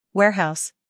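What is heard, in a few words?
"scandal", American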